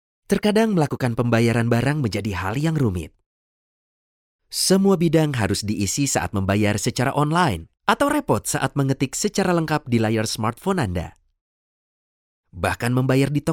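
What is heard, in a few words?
Indonesian, General; GoLocalise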